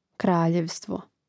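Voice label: "kraljevstvo", Croatian; Mrežnik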